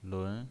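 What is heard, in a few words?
"lun", pinyin, Mandarin Chinese, second tone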